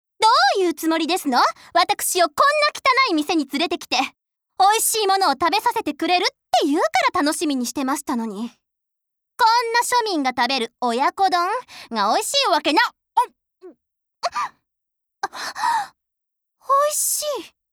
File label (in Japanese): 少女（気の強いお嬢様）